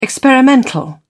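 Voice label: "experimental", English, British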